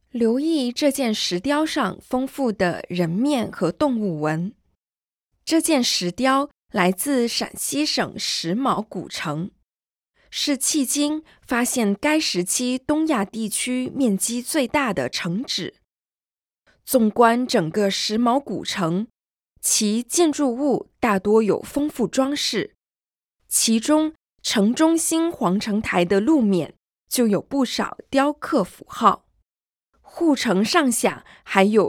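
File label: Mandarin, audio guide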